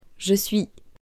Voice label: "JE SUIS", French, female; to be verbe etre